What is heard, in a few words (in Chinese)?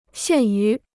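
"限于" Chinese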